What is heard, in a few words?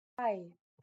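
"bei", German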